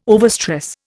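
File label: "overstress", Indian English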